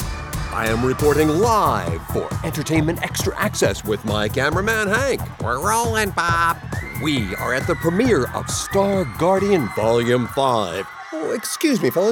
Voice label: Animation